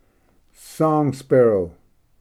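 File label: "song sparrow", English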